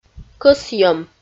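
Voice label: "кӧсйӧм" Komi, female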